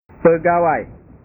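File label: "pegawai", Indonesian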